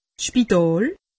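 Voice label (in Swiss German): "spital", Alsatian; medecine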